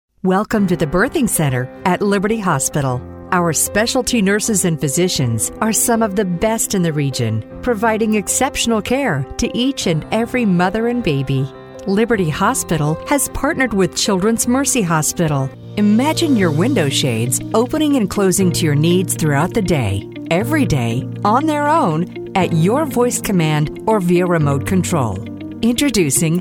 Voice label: CORPORATE NARRATION; CORPORATE NARRATION Demo

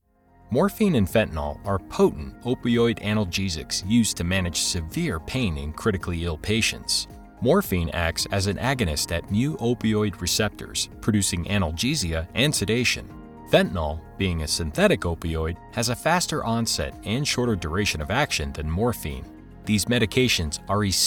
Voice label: Medical E-Learning

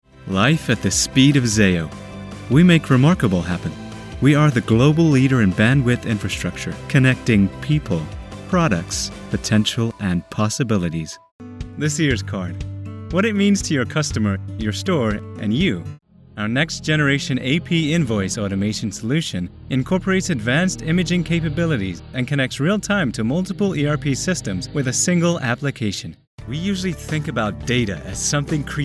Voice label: American Corporate

